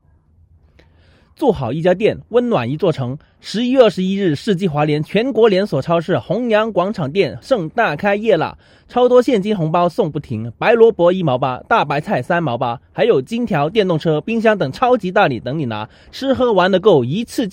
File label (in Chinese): 促销词